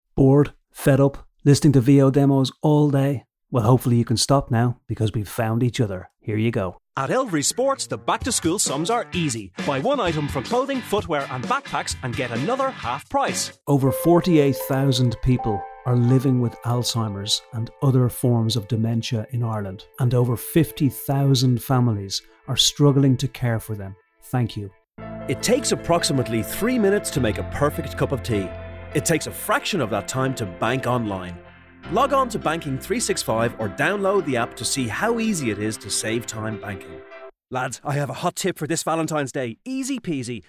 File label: voiceover; voiceover demo